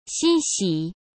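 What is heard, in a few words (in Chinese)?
"欣喜", Mandarin Chinese; chinese flashcards; hsk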